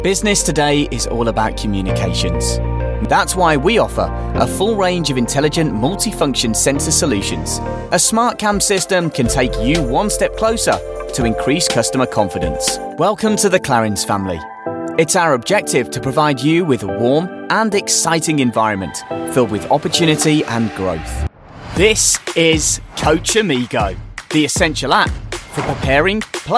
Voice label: elearning and corporate